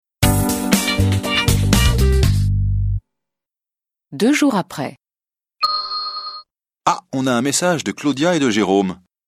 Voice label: French